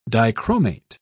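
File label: "dichromate", British English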